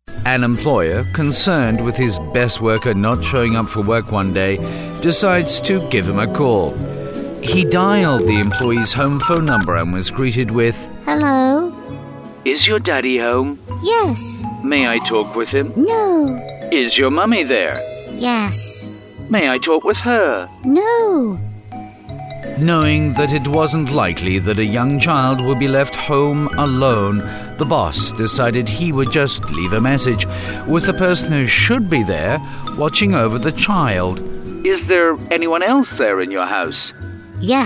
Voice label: Characters Male, Scottish, Strong, Rich